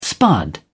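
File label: "spud", English, British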